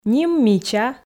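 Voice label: "ним мича", Komi, female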